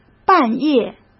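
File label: "半夜", Mandarin; chinese-flashcards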